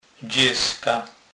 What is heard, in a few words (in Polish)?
"dzezka", kurpiow